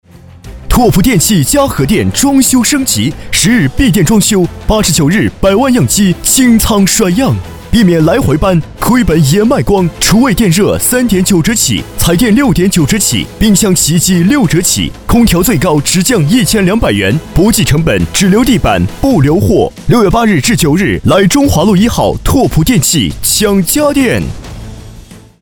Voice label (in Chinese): 男 电器城 激情年轻